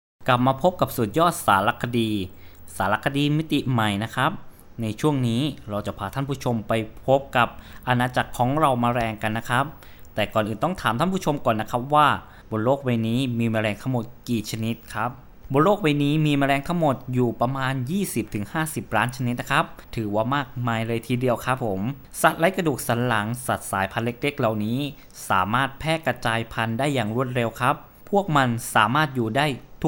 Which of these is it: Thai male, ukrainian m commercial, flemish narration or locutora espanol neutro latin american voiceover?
Thai male